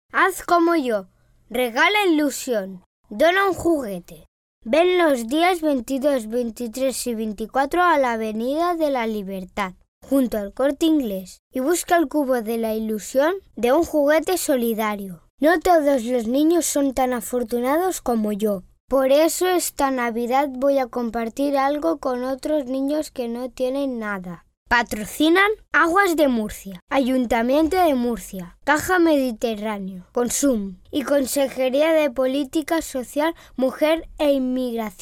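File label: Spanish boy; children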